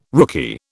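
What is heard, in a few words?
"rookie", British English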